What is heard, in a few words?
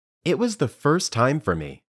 Dictation